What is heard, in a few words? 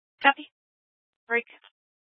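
Fire Dispatch, scanner audio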